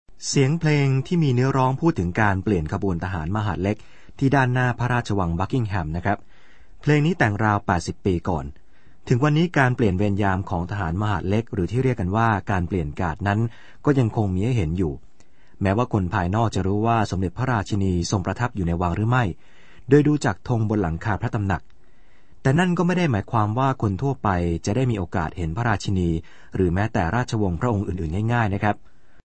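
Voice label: Thai male voice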